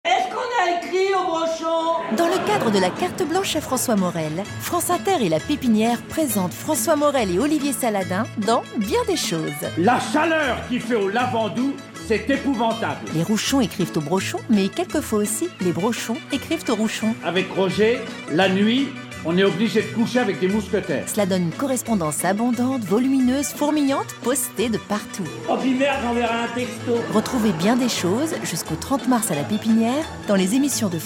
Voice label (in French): narrative gaie, voix off comédienne; François Morel